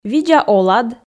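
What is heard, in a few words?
видза олад, Komi, female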